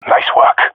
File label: compliment, jungle male epic; agents